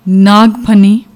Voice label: "naag fanni", Punjabi; ਨਾਗਫਨੀ

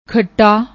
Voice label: "khatta", Punjabi; ਖੱਟਾ

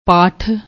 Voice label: "patthh", Punjabi

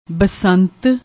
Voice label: "basant", Punjabi

